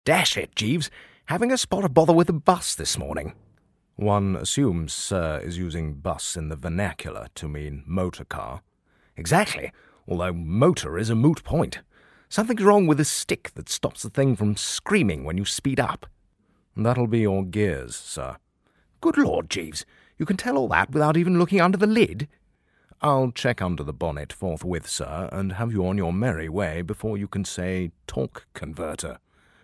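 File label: British English, male, voice over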